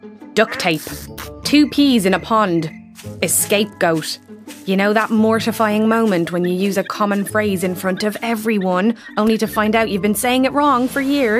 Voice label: Narration

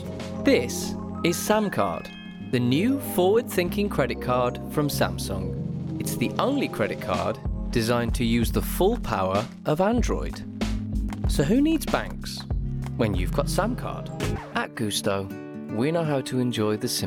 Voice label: Commercial

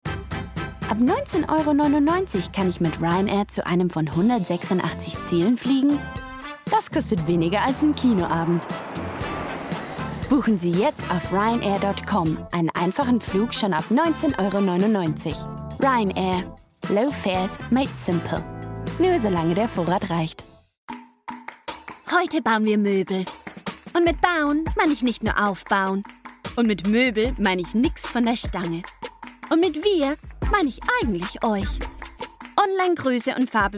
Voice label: German

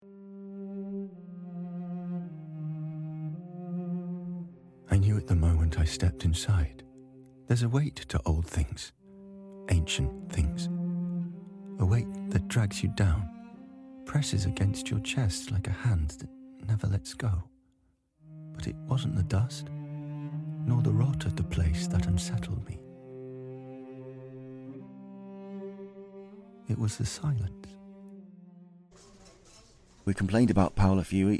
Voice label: Drama, regional accents; 40s-60s. Male. Yorkshire.

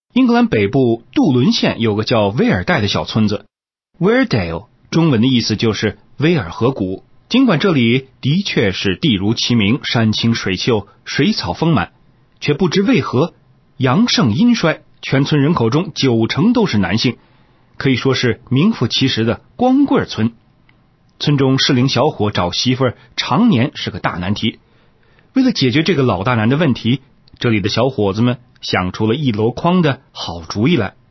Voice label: Chinese-Mandarin, Male, 20s-40s

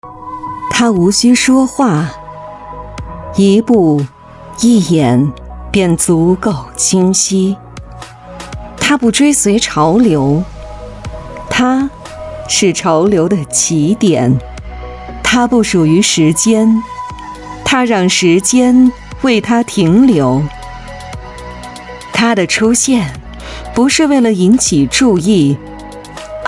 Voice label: Mandarin

